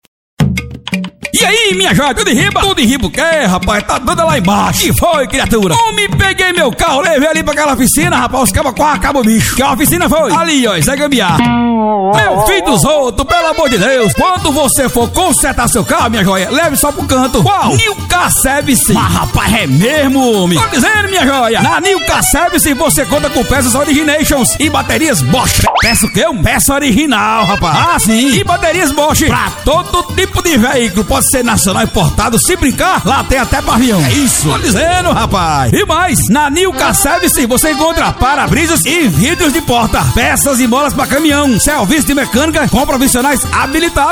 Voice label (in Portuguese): varejo caricato